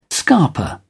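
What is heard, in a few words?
"scarper", British English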